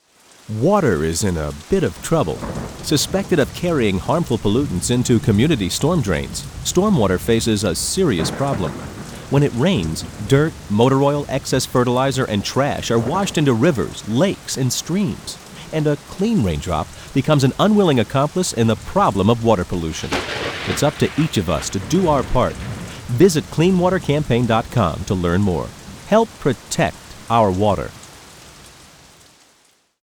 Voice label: read